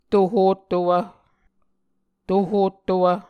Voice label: "tohottowv", Muscogee